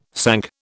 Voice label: "sank", British